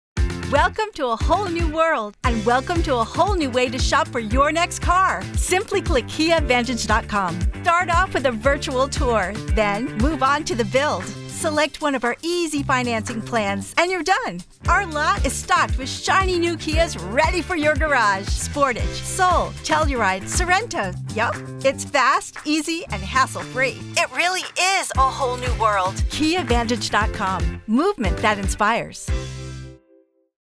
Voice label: high energy retail; Kia Vantage